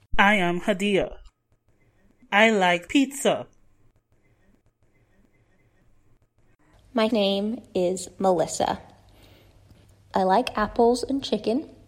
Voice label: anglais; likes